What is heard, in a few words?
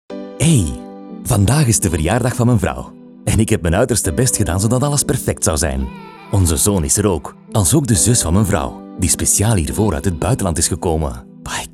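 Flemish, male, corporate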